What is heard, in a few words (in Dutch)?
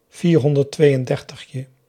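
"vierhonderdtweeëndertigje", Dutch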